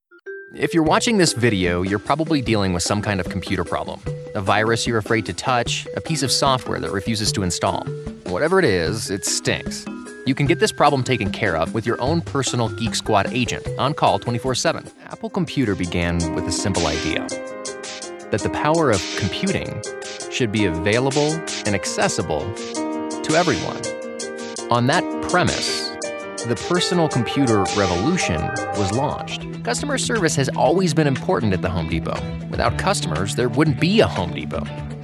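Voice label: M, US, male, narration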